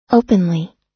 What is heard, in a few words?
"openly", us